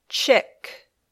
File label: "chick", English